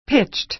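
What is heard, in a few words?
"pitched", American English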